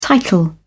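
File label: "title", British English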